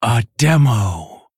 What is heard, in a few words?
Female Tauren Attack